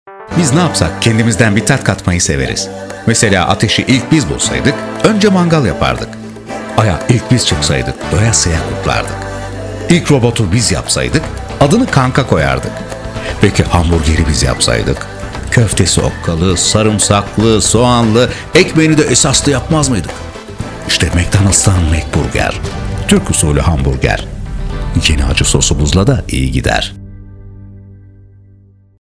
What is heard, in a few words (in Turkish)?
dinamik